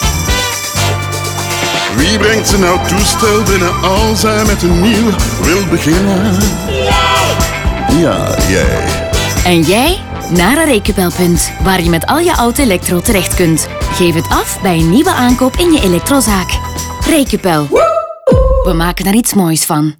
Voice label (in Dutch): VL RADIO; Terugnameplicht; Recupel